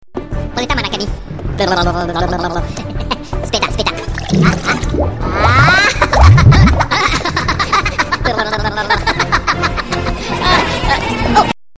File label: Minion Water